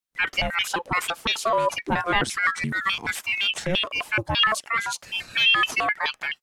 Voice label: Deep Alien Robot - Long; Alien Voices

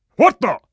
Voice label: surprise, metropolice; hl2

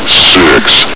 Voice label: "six", Combine soldier; hl2

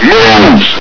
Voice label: "moves!", Combine soldier; hl2

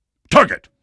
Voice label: target, combine soldier; hl2

